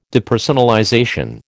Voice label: "depersonalization", American